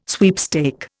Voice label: "sweepstake", Indian

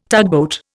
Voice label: "tugboat", Indian

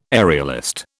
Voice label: "aerialist", British

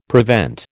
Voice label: "prevent", American

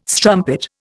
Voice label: "strumpet", Indian

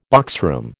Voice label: "boxroom", American

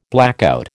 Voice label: "blackout", American

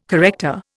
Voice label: "corrector", Indian

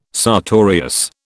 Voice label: "sartorius", British

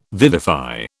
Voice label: "vivify", British

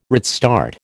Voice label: "redstart", American

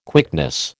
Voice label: "quickness", American

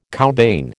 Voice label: "cowbane", American

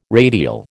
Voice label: "radial", American

